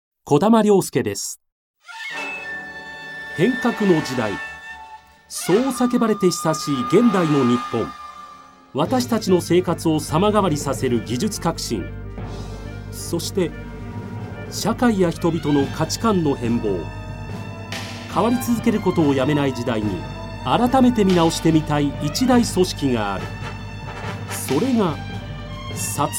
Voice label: male tv program